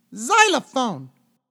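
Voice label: "xylophone", English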